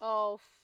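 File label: "of", Nigerian Pidgin, female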